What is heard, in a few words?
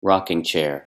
"rocking chair", English